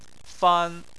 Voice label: "fan1", Chinese

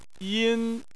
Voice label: "yin1", Chinese

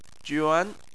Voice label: "juan2", Chinese

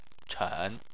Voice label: "chen3", chinese

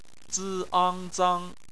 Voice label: "zang", Chinese

